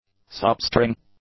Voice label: "substring", english

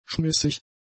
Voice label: "schmissig", German; adjectives

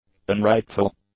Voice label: "unrightful", english; adjectives

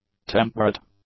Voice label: "temperate", English; adjectives

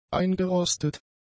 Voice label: "eingerostet", German; adjectives

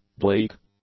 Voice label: "bleak", English; adjectives

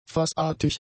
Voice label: "fassartig", German; adjectives

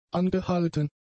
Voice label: "angehalten", German; adjectives

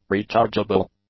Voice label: "rechargeable", English; adjectives

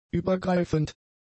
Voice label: "übergreifend", German; adjectives

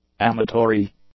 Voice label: "amatory", English; adjectives

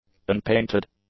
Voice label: "unpainted", english; adjectives

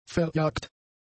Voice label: "verjagt", German; adjectives

